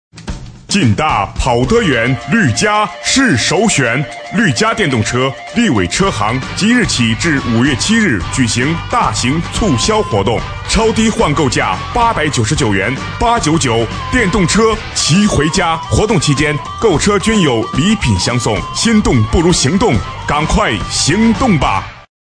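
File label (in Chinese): 男促销 电动车促销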